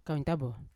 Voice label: "kawintabo" six, Yawa

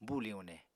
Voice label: "buli uune", Apatani

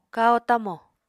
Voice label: "kahó-tamo", Apatani

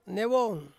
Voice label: "newong", Ansus; egg

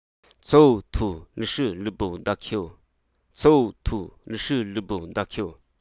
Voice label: Hruso Aka; cater

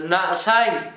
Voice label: "mnaʔ-sæŋ", Gta, Dialect: Hill; hot weather

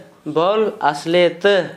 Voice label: did not work well, Gta, Dialect: Hill; bʰo:l a-sIlej=tǝ

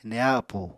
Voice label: "neha appú", Apatani

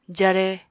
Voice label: "jare", Apatani

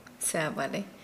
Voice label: Nukuoro; spit

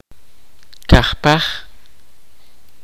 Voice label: kaqchikel; four times

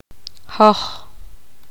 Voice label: poqomam; nosotros inclusivo

